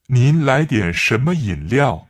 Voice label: Mandarin Conversation

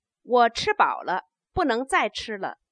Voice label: stative, Chinese

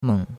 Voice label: "meng3", chinois; Cours de Chinois

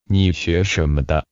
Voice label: Mandarin Conversation

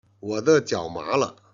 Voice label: "jian", Chinese

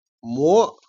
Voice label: "jian", Chinese

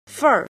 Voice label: "jin", Chinese

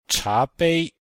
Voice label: "cha2 bei1", Chinese

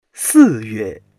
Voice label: "si4 yue4", Chinese

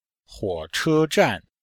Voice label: "huo3 che1 zhan4", chinois; Cours-de-Chinois

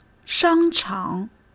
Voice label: "shang1 chang3", Chinese

